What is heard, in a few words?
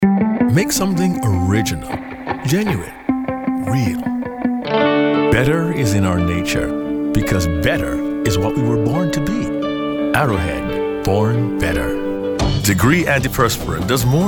commercial